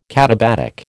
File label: "katabatic", American